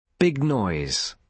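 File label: "big noise", British English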